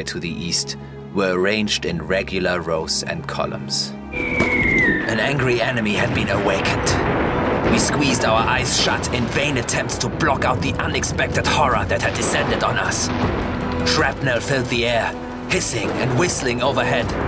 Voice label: English With German Accent Voicereel